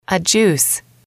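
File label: "a juice", English, female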